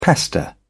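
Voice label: "pester", British English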